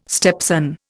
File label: "stepson", Indian